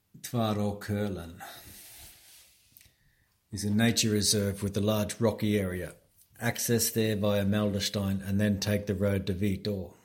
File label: English voice; Tvarakolen